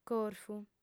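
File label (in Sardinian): "corfu", female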